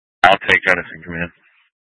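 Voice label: Incident Tac 1, Scanner audio recording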